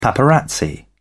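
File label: "paparazzi", British English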